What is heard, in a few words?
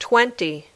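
"twenty", English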